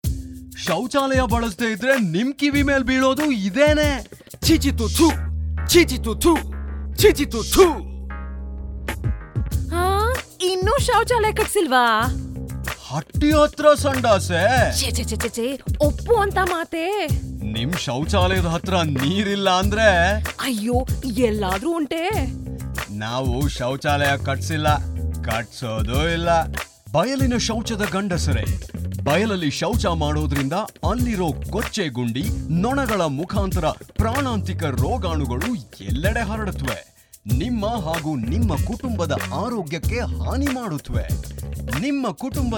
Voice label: Kannada Audio Spot; Men to Build Toilet

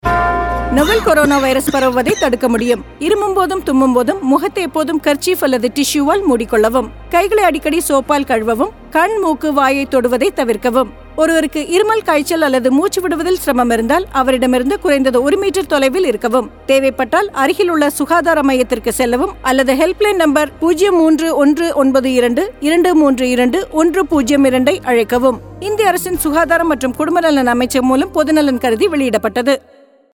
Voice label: Cough Radio Tamil; A&Nicobar

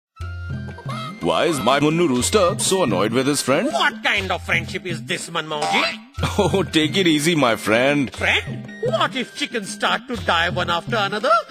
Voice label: Radio Report Eng

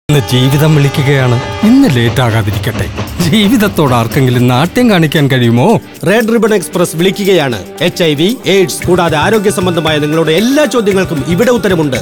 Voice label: Radio Malayalam

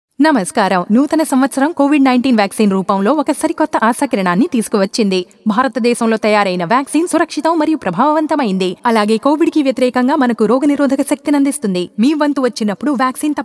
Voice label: Telugu Caller Tune

